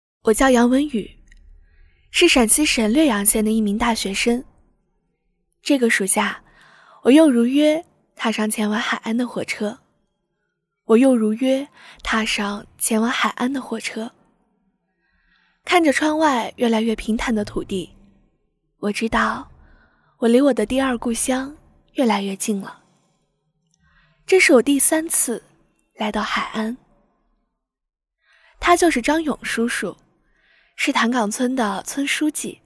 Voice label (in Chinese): 女 自述 大学生感觉